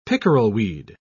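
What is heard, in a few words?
"pickerelweed", British English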